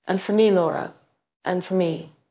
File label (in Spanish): Voice Acting es F0